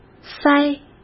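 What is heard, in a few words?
"塞", Mandarin Chinese; chinese-flashcards; hsk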